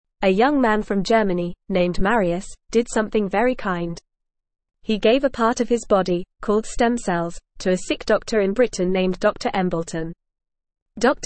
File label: English FAST Reading; A Kind Man Helps a Sick Doctor